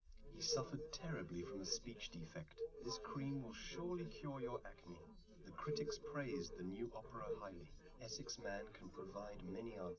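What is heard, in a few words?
male, live recording; SiSEC11; underdetermined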